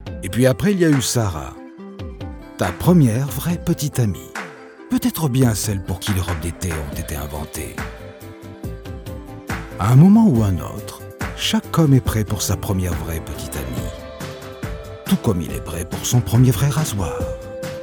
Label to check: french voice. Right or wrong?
Right